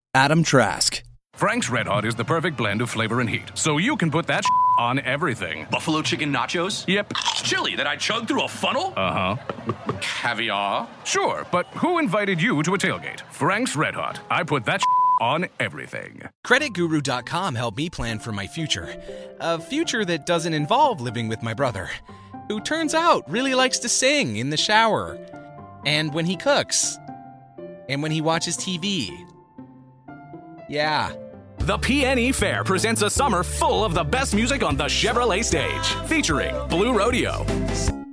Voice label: Commercial And Promo